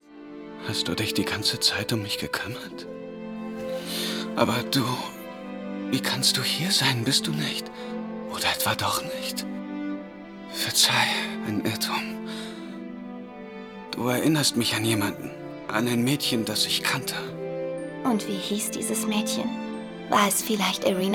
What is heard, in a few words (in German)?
Synchron Gefuehlvoll; Jojos Bizarre Adventure